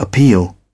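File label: "appeal", British English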